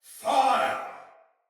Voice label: Shoot Arrow, Soldier Male; Battle; Barks; Dialog; Sinecure Soldier Male B